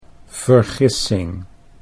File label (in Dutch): "vergissing", Dutch